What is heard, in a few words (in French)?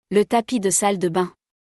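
"Le tapis de salle de bains", French; vocabulaire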